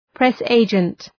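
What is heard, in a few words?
"press agent", English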